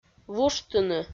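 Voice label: "вуштыны", Komi, female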